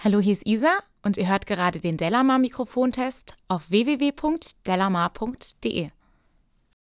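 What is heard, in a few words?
speech female; akg c214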